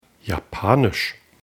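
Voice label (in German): "japanisch", German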